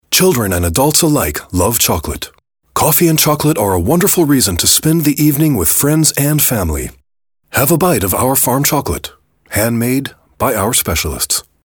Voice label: ENG male Sweet